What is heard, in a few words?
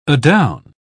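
"adown", British English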